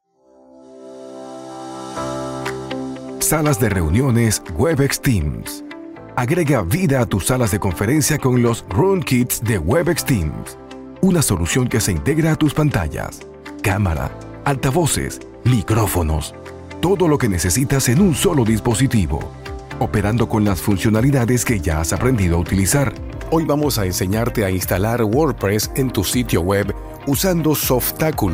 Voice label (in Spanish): narracion e-learning